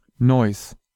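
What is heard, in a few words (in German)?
"Neuss" German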